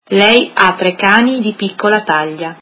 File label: she has three small dogs, italian